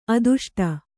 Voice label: "ಆದಿಷ್ಟ", kannada

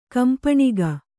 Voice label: "ಕಂಪಣಿಗ", Kannada

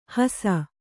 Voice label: "ಹೀಸು", Kannada

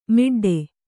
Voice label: "ಮಡ್ಡು", Kannada

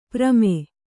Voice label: "ಪ್ರಮೆ", kannada

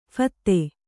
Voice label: "ಫತ್ತೆ", Kannada; phatte; noun; triumph; victory.

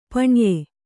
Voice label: "ಪಣ್ಯ", Kannada

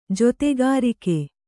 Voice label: "ಜೊತೆಗಾರಿಕೆ", Kannada

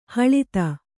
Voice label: "ಹಳದಿ", Kannada

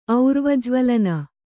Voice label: "ಔರ್ವಜ್ವಳನ", Kannada

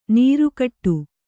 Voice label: "ನೀರ್ಕುಡಿ", kannada

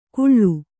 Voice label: "ಕುಳ್ಳು", Kannada

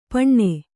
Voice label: "ಪಣ್ಣೆ", Kannada

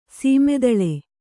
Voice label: "ಸಮತಳ", Kannada

